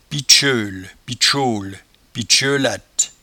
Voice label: "pitcheule", patois jura